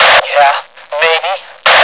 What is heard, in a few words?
chat; hgrunt